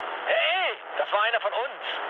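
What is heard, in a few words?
German player killed ally mood low, speech; War Thunder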